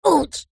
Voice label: cartoon voice high pitched says ouch

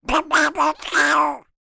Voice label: cartoon duck voiced by human speaking

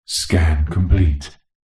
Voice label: science fiction computer voice says scan complete; zapsplat

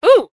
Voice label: human female ohh suprised short

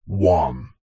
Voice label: radio production voice male processed deep says 1

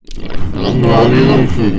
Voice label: Alien Scientist; little robot sound factory

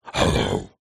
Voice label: "Hello", Evil

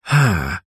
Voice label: human male middle aged says ahh puzzled

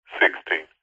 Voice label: cb radio male says 16 speaker pov

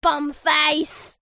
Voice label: human child boy english 8 says bum face

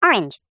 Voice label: cartoon voice high pitched says orange

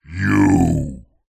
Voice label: horror evil demonic voice male says "you"

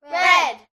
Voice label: human children x5 under 10 english say "red"; zapsplat

